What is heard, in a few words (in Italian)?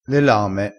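"lame", vocabolario dialettale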